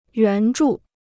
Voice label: "援助", Chinese

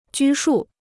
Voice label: "拘束", Chinese

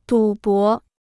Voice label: "赌博", Chinese

